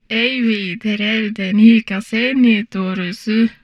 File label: Humanoid female; Stellaris